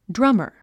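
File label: "drummer", English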